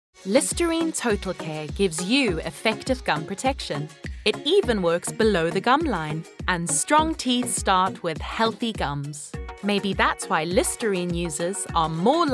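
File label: South African English Commercial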